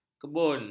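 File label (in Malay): "kebun", Malay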